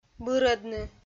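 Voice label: "бырӧдны", Komi-Zyrian, female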